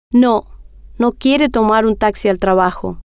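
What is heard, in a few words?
no Quiere Tomar Taxi Trabajo, Spanish, female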